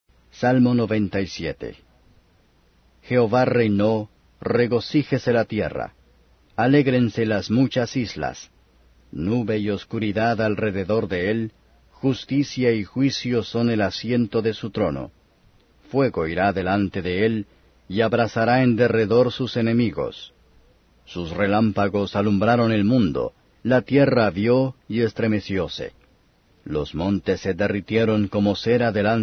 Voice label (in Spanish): salmos spanish